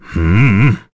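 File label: Vox Deny, Korean; Bernheim; kingsraid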